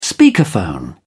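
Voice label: "speakerphone", British English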